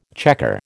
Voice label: "chequer", American